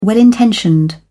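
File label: "well intentioned", British English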